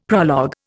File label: "prologue", Indian; Dictionary